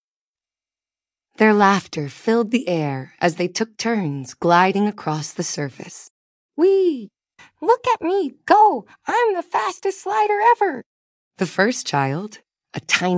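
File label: Three Children Sliding on the Ice female us story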